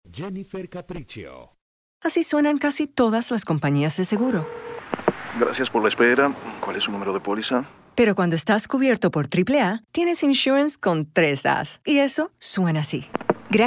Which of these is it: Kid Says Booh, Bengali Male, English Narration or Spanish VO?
Spanish VO